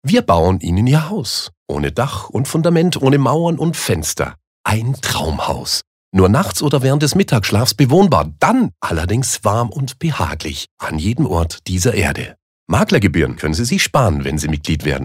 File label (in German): Werbung Freundlich